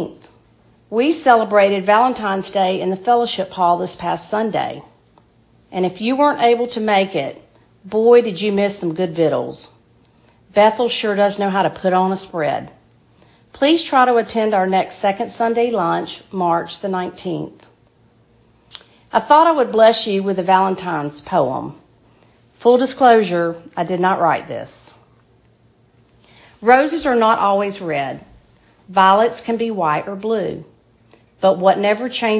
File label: Welcome and Announcements